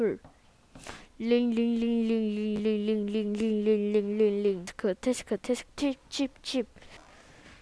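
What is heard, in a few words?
"ring", spoken word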